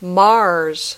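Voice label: "Mars", English word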